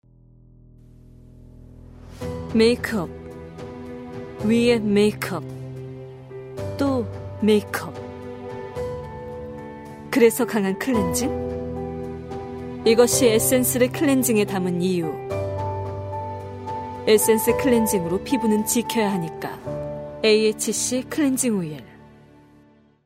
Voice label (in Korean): 광고 화장품 낮은